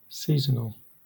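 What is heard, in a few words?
"seasonal" English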